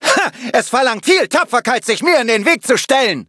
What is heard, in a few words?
battle, de; cookie run